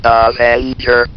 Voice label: "avenger", votrax; VIDEO GAMES